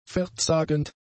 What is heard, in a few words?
"verzagend", German; adjectives